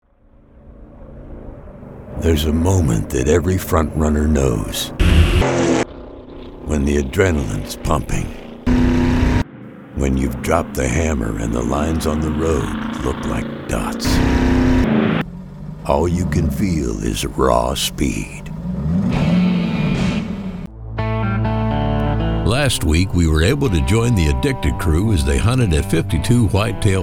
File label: Promo